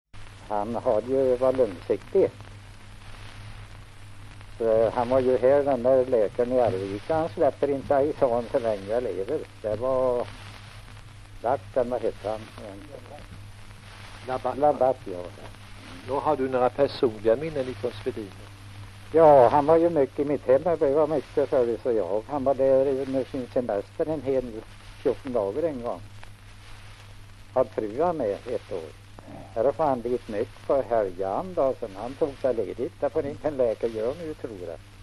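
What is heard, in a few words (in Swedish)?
Sunnemo Värmland, svenska sagor dialekter; Folkminnen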